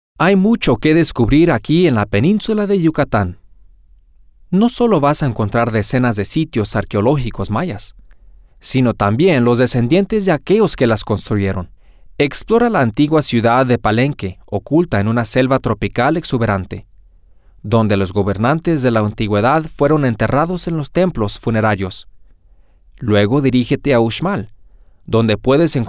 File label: Spanish; la Puerta